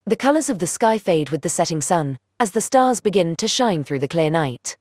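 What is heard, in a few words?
British English; iOS Sounds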